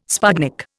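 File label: "sputnik", Indian English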